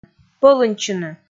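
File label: "пӧлыньтчыны", Komi, female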